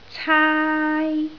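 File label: "caai1", Cantonese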